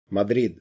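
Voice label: "madrid", Spanish, castilla masculino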